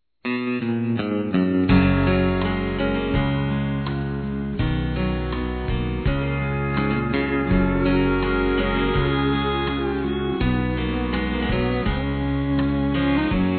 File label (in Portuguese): ESTOU MAGOADO, Românticas, fem